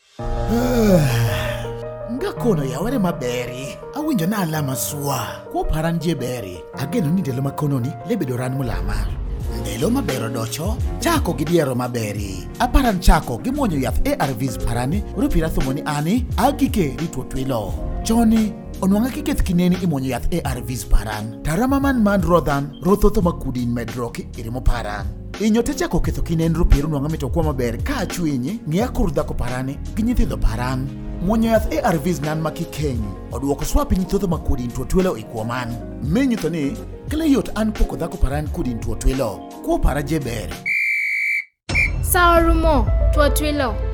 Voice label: HIV Spot Japadhola, radio spot; Viral Load Suppression

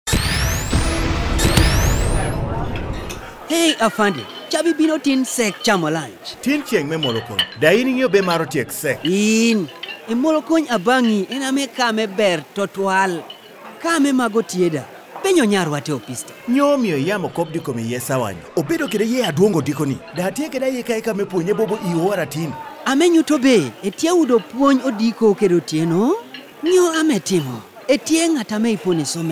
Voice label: radio spots, KUMAM; adolescent health campaign radio spots; BOLD; Staying Cool

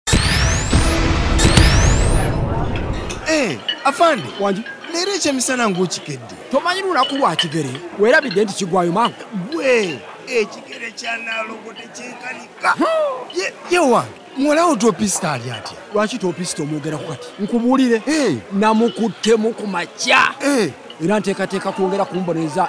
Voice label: Staying Cool, Luganda, radio spots; adolescent health campaign radio spots; BOLD

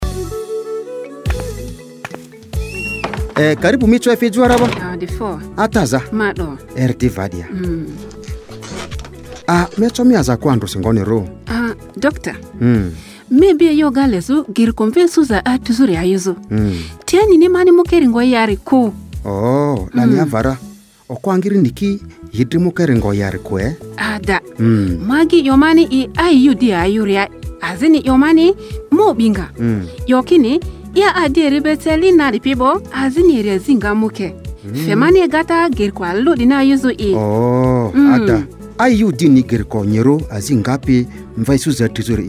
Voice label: IUD Health Worker Spot Lugbara; radio spots

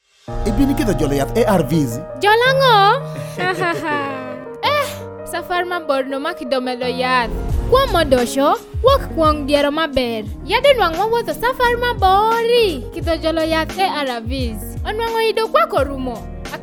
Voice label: HIV Spot Jopadhola, radio spot

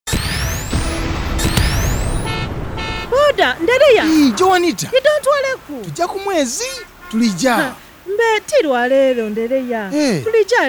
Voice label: LUSOGA, radio spots lusoga; adolescent health campaign radio spots; BOLD; Taking Charge

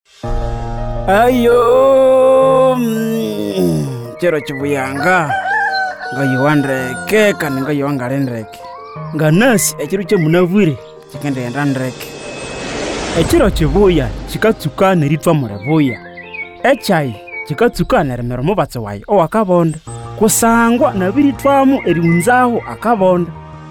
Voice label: HIV Spot Rukonzo, radio spot; Viral Load Suppression

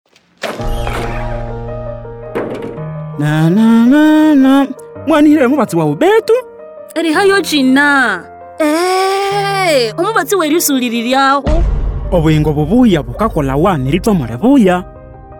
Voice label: Time Up HIV Spot DSD Rukonzo; radio spot

